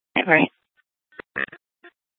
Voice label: Sheriff, scanner P25; Shrf Lakes